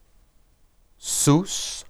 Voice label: sus, hebrew